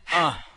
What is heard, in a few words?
"UH", Vox